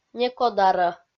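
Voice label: "некодарӧ", Komi, female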